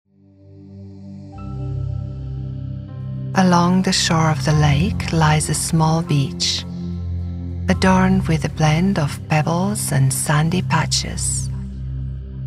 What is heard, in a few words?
soothing campfire, guided meditations